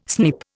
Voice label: "snip", Indian

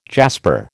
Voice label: "jasper", American

